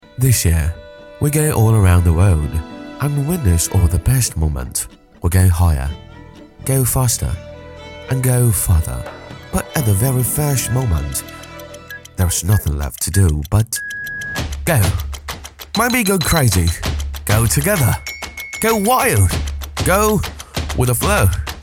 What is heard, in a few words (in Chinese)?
男 英文 品牌宣传; 品牌宣传华为